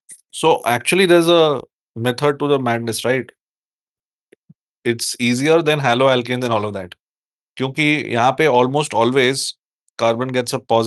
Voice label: voice message